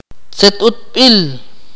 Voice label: "how", Akateko